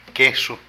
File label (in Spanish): Red, Zapoteco de Petapa; Vocabulary